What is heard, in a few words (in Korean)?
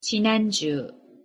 "지난주" Korean; pronunciation